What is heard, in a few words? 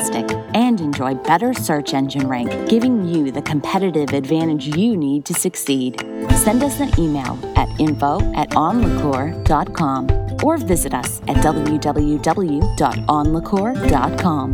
ad with background music; anlicor